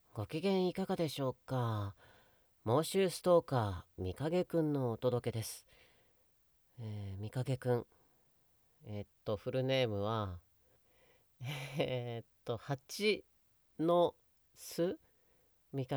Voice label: フリートーク

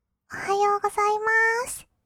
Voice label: おはようございます, kawaii voice; ASMR; 挨拶; 1200 kawaii voice!!

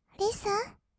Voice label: リッスン, kawaii voice; 音ゲー

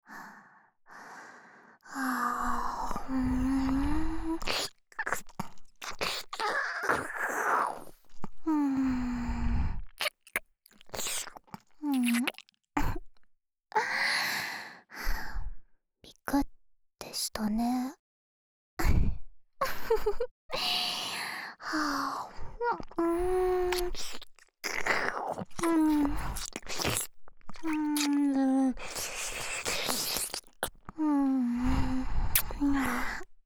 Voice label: 即耳舐め！グポグポ舐められて勃起しちゃいました, ASMR

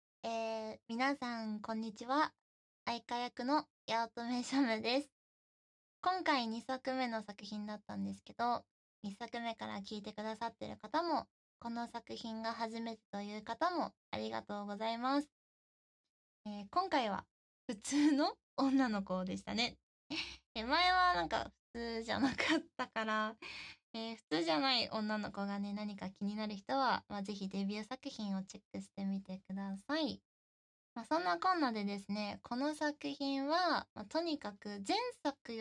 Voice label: フリートーク

